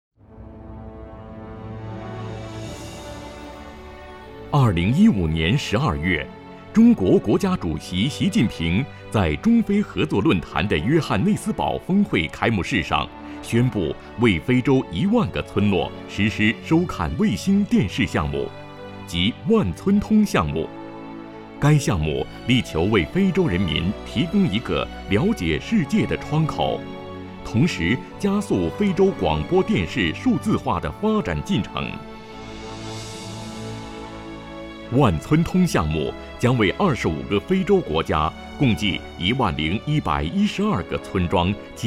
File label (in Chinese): 新闻专题; 万村通